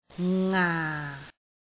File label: "ngaa4", Cantonese